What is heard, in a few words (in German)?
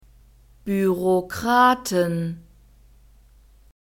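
"Bürokraten", German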